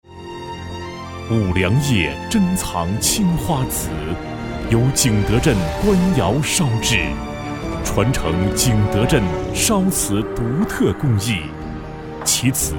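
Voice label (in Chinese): 男（浑厚品质）; 五粮液青花瓷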